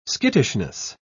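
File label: "skittishness", British English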